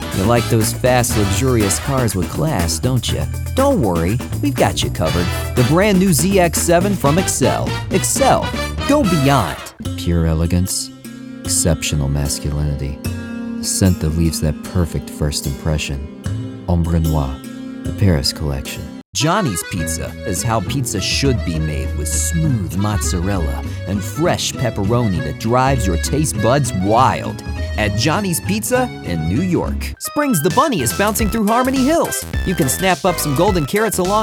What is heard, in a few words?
Commercial Voiceover